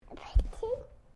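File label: MALE 2 year old WHISPER; Whispering (Sound Effects:Voice)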